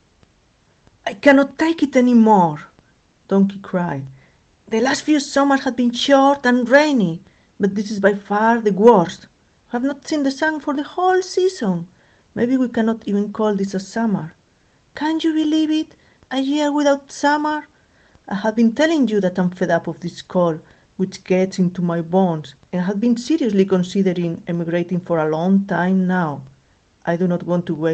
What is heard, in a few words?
English